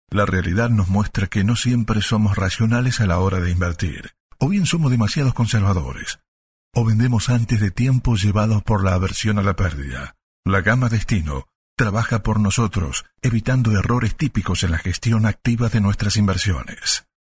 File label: locutor argentina argentinian voiceover